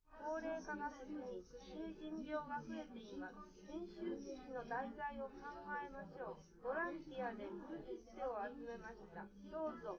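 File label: female4 live recording 250ms 1m, underdetermined; SiSEC11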